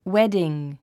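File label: "wedding", English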